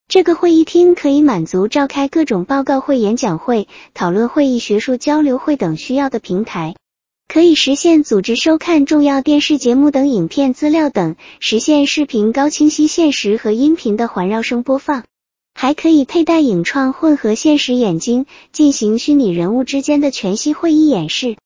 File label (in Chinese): 这个会议厅-迅捷文字转语音